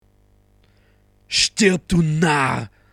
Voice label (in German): Narr